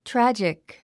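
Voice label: "tragic", English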